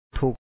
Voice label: "ທຸກ", Lao; thu#